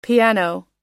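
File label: "piano", English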